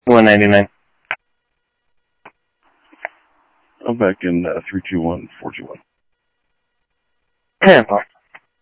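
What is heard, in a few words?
Sheriff Lake Patrol, scanner audio trunk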